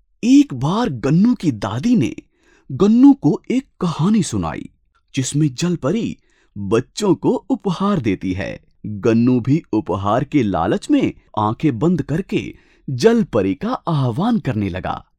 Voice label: Chield Story Male Hindi